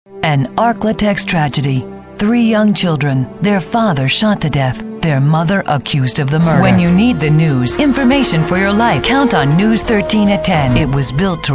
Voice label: demo television